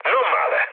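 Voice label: Italian trick mood low, speech; War Thunder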